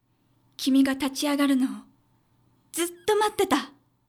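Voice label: female; 君が立ち上がるのを、ずっと待ってた。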